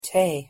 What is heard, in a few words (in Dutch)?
"tae", Iers; thee-in-andere-talen